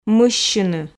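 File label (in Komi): "мыссьыны", Komi, female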